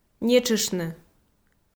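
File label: "нетшыштны", Komi, female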